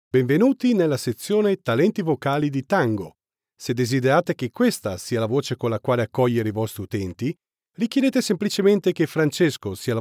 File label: Corporate, italian